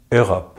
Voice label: "Europe", French; easypronunciation